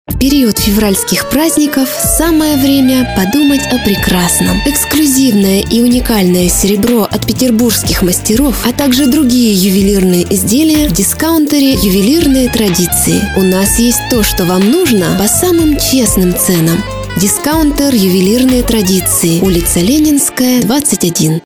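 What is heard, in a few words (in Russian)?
голоса, Russian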